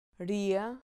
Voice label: "ria", Spanish syllable